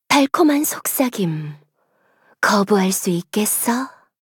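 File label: voice, Korean; Eve; Ultimate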